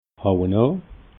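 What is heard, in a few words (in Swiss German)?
"hajenoi", Alsatian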